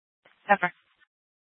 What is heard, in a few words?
Sheriffs Office, scanner